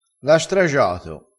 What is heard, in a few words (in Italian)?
"stragia", vocabolario dialettale crespinese